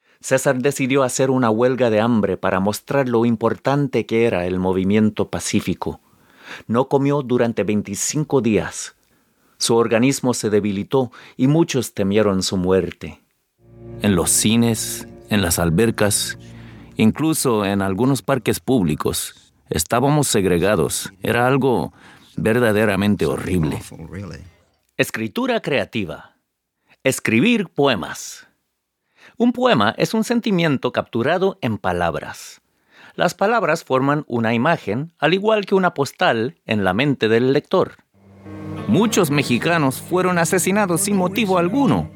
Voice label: Narration Spanish